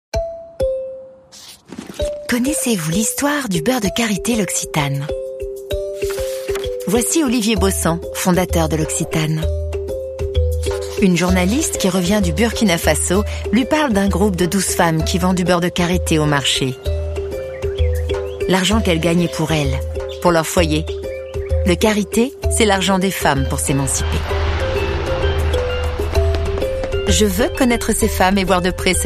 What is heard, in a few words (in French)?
Film TV narrative souriante; voix-off; L'Occitane